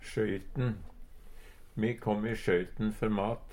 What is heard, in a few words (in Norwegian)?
i sjoyten, Numedalsmål; Substantiv hankjønn; Uttrykk; Me kom i sjøyten før mat.